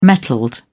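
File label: "metalled", English, British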